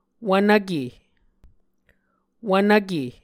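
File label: "wvnvke", Muscogee word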